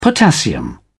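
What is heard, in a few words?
"potassium", British English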